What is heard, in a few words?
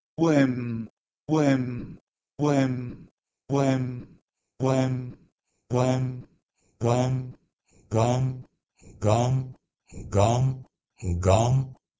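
Proto-Indo-European gwem to Dari gam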